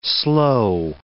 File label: "slow", english